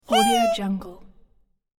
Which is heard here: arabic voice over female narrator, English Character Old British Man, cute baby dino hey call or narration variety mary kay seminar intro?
cute baby dino hey call